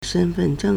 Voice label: "shen1fen4zheng4", Chinese

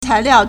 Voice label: "cai2liao4", Chinese

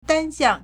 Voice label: "dan1 xiang4", Chinese

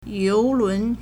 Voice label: "you2 lun2", Chinese

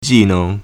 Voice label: "ji4 neng2", Chinese

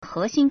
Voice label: "he2xin1", Chinese

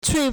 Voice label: "cui4", Chinese

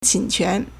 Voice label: "qin1 quan2", Chinese

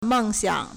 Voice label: "meng4xiang3", Chinese

